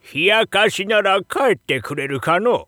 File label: shop oldman, voice